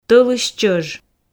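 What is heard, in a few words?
"тӧлысь чӧж", Komi, female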